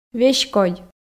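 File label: "веськодь", Komi, female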